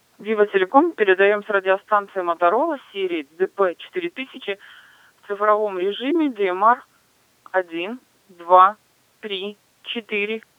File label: motorola dp4801e tx digital female